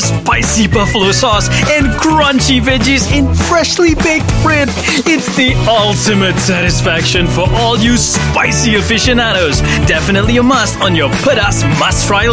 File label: Malay English; Subway Malaysia